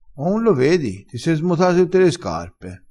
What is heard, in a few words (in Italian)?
"smota", crespinese